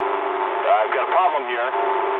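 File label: aircraft damaged mood low, speech English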